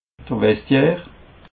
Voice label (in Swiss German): "vestiaire", alsace